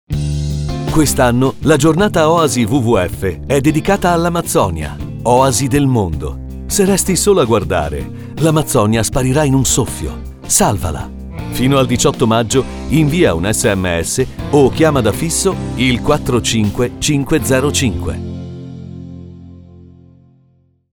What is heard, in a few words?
spot radio; wwf amazzonia 2014